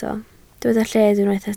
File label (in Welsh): "lled", Welsh